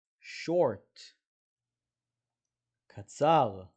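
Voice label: "short" English, "קצר" Hebrew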